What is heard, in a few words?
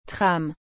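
"tram", French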